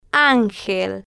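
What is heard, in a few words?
"angel", slow, spanish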